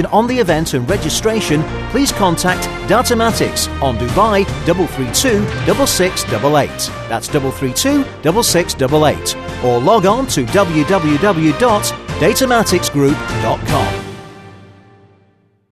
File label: debate english